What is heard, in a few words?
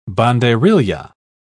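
"banderilla", British English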